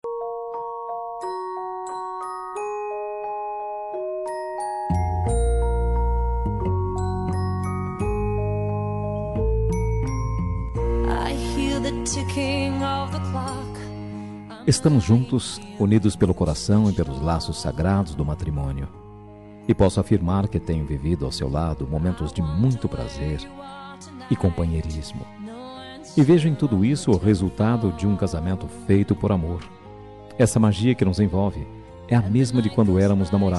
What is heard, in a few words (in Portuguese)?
esposa, voz masculina; Dia dos Namorados; para esposa